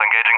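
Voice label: "engaging", English speech; Allied Flight; DCS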